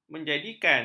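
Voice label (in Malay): "menjadikan", Malay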